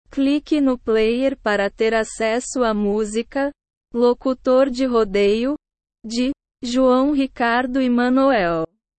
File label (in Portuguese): locutor de rodeio